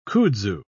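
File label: "kudzu", British English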